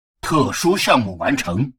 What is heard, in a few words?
advisor notification special project complete, chinese; Stellaris